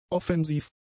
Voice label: "offensiv", German; adjectives